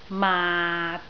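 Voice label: "maat6", Cantonese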